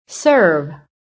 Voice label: "BAD", american